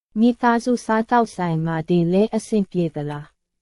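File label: Myanmar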